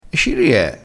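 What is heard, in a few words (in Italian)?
"e ci rie", dialettale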